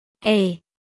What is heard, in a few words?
Phoneme Female; Lynguistykon: Commonscript